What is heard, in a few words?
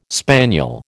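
"spaniel", American English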